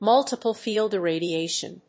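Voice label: "multiple field irradiation", English; 多門照射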